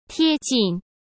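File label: "贴近", Mandarin Chinese; hsk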